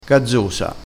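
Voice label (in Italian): "gazzosa", vocabolario dialettale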